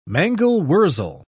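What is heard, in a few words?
"mangel-wurzel", British English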